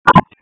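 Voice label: Raleigh Police Southeast District Dispatch, p25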